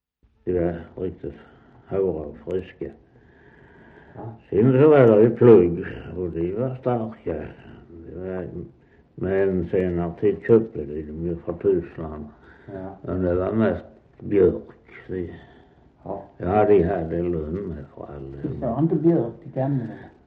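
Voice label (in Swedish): Västra Torup Skåne, dialekter; Folkminnen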